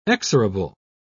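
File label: "exorable", British English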